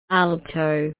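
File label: "alto", AUS, female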